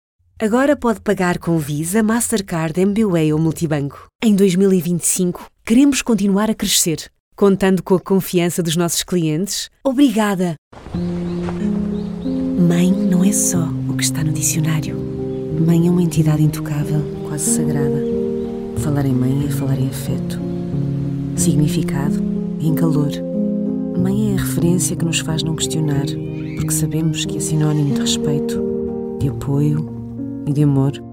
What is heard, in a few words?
female, portuguese voices